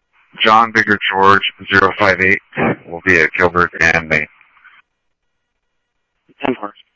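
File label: Mesa Police Department, scanner audio trunk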